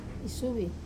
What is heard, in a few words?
"suvi" exit, Wamesa